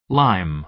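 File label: "lime", American English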